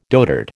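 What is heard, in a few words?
"dotard", American English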